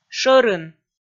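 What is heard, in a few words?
"шӧрын", Komi, female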